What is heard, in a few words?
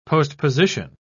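"postposition", British English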